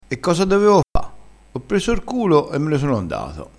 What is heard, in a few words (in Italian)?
"prende r culo", crespinese